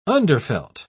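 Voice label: "underfelt", British English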